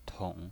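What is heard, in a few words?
"tong", pinyin voice, third tone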